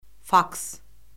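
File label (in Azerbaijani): "faks", Azerbaijani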